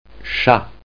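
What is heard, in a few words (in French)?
"chat", French; Reperages 94; jeuvocab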